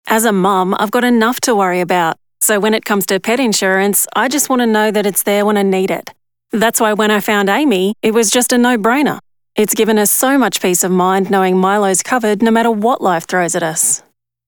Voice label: Commercial; AAMI